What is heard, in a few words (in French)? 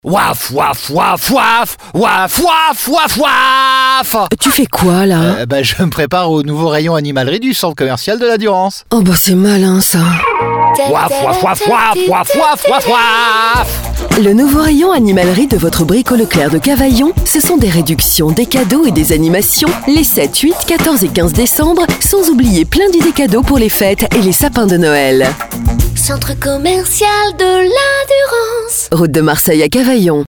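spot, spots radio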